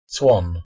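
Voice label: "swan", English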